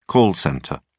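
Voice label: "call centre", British English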